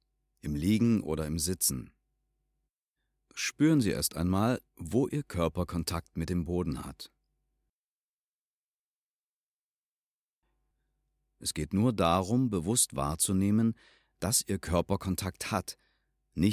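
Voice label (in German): achtsames atmen männliche stimme; ptbs hilfe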